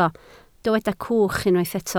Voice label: "cwch", Welsh